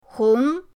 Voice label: "hong2", pinyin